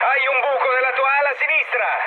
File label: left wing mood med, speech it; War Thunder